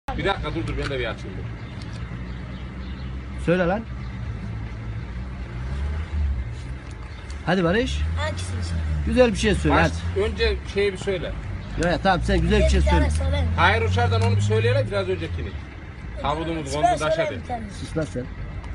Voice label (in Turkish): cocugun sesi